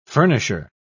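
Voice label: "furnisher", British English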